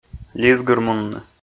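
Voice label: "лизгырмунны", Komi, male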